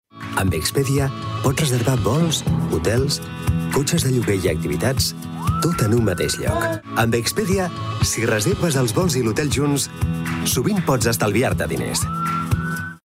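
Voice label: male Catalan travel commercial; ideasonora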